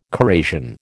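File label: "corrasion", American English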